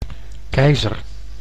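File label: "keizer", Dutch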